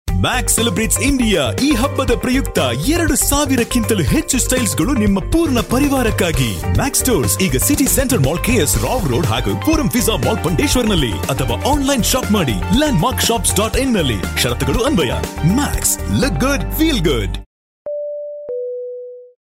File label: Kannada Advertisement; Kannada samples